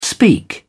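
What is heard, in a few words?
"speak up", British English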